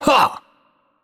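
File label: Italian attack heavy voice; bloom minigame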